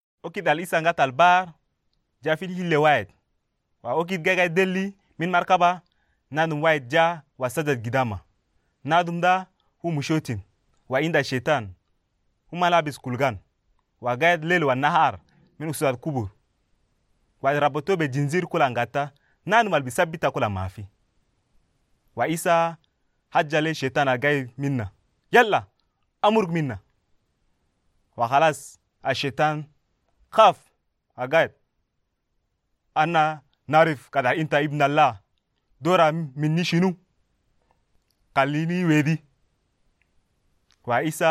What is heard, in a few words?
Arabic Chadian; Jésus et le démoniaque; Demons Cast Out